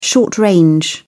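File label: "short-range", British English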